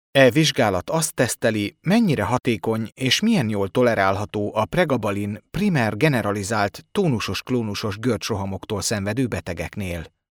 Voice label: hungarian male corporate, Experienced Cool Voiceover